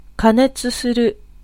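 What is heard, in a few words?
Japanese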